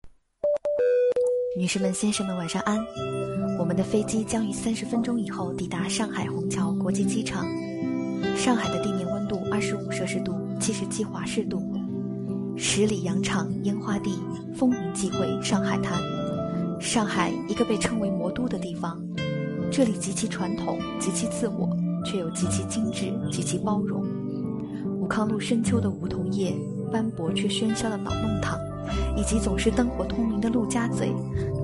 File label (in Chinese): 上海 空姐 的 晚安; 来自上海空姐的晚安